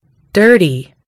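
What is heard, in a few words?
"dirty", American English; gcompris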